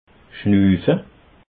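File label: "schnuufe", Bas Rhin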